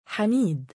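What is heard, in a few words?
"7amiid" male, Arabic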